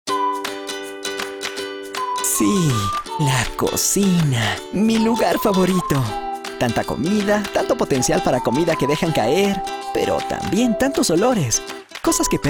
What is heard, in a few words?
Television spots